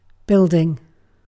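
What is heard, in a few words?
"building", British English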